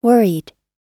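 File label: "worried", US English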